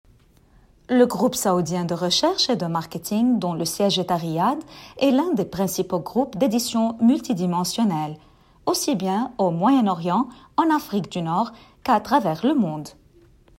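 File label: Arabic French Accented; GoLocalise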